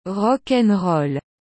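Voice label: "rocknroll", French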